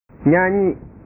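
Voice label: "nyanyi", Indonesian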